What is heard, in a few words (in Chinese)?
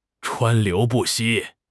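"川流不息", Chinese; HSK6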